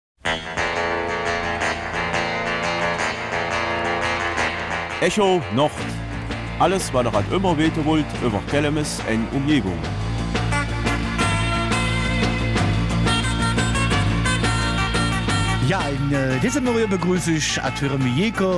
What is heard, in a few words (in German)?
Kelmiser Mundart; 6×11 Jahre Karnevalspolizei Kelmis